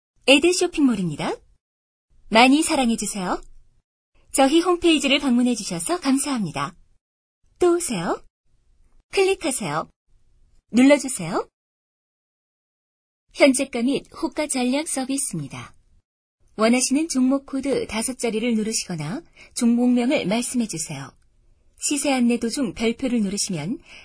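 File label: Korean, female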